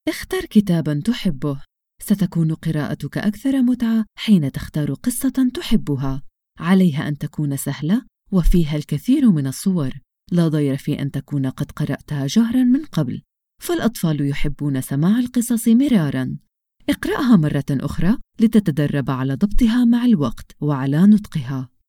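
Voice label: female, Arabic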